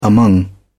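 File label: "among", English, British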